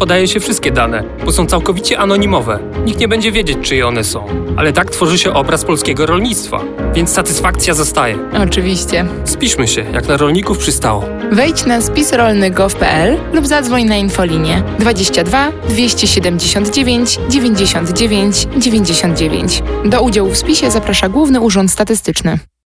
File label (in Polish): spot radiowy; spis a rodo